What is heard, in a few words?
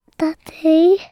3 year old english boy says "daddy" softly